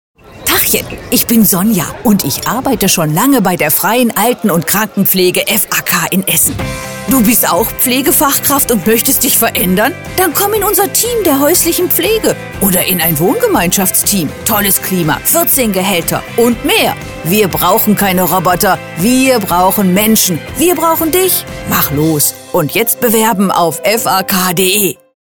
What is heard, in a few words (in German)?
Mitarbeitersuche Radio; FAK; Mitarbeitersuche 2025; Radio Essen; Der FAK e.V. als etabliertes und wachstumsorientiertes Pflegeunternehmen aus Essen sucht Verstärkung.